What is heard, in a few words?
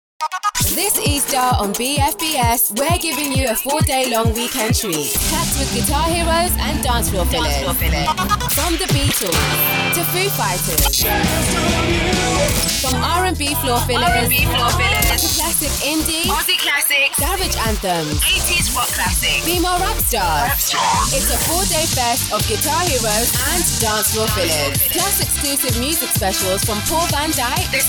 Radio; BFBSTIVAL